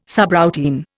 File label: "subroutine", Indian